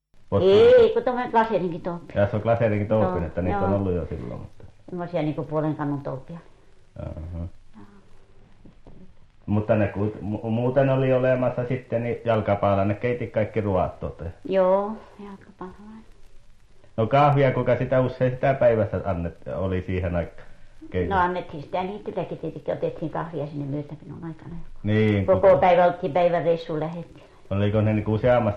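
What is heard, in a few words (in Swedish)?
Övertorneå Norrbotten, dialekter; Folkminnen; Svenska sagor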